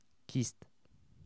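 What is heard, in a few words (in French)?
"kyste", French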